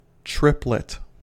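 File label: "triplet", American English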